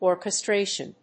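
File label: "orchestration", English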